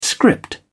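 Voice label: "script", British English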